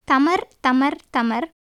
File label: Tamil